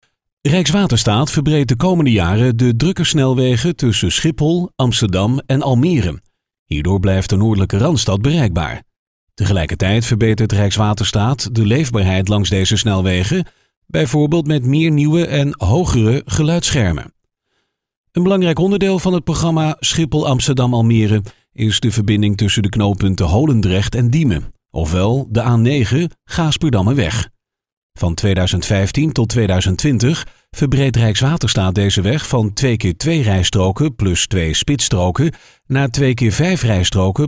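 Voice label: Dutch, male, narration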